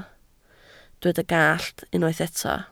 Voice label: "gallt", Welsh

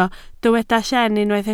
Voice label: "llen", Welsh

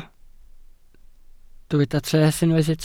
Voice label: "treth", Welsh

